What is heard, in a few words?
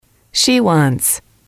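"she wants", English, female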